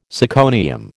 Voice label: "syconium", American